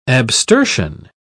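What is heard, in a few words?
"abstersion", British English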